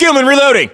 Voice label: US inform reloading, battlechatter, english